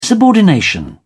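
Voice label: "subordination", British English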